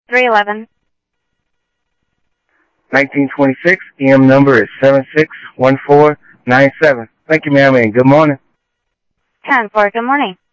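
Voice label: Sheriff Lake Patrol, scanner audio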